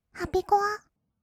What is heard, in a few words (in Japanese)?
ハピコア, kawaii voice, 音楽ジャンル; 1200 kawaii voice!!